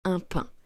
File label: "un pain", French